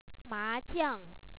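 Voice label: "ma2jia4ng", Chinese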